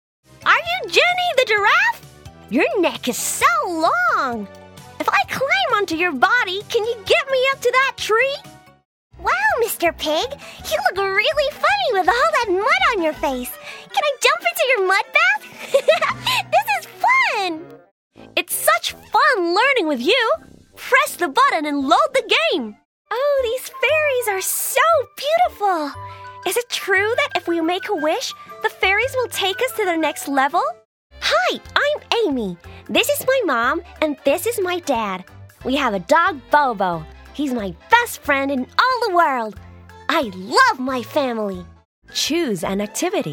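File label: American, Toys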